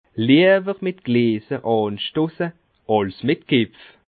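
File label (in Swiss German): "glaser", Bas Rhin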